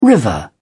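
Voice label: "river", British English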